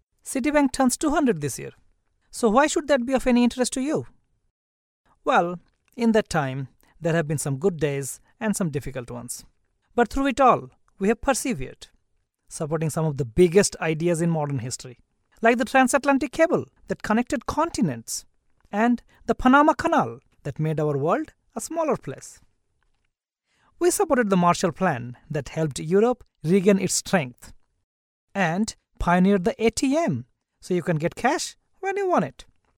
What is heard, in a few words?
male bengali voice over english; bangla